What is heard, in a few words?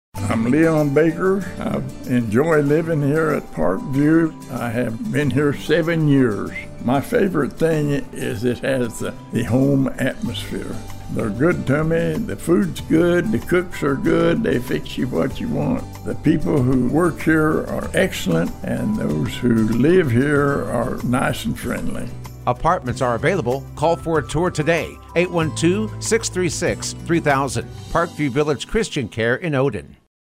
testimonial